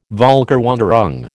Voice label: "VÔlkerwanderung", American